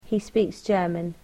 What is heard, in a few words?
"he speaks German", English